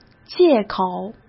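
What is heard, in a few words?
"借口" Mandarin Chinese; hsk; chinese-flashcards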